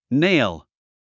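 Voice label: "nail", English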